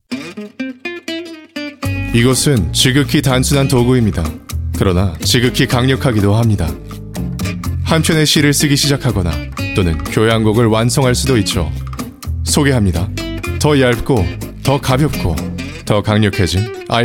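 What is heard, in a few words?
Korean Accented English; GoLocalise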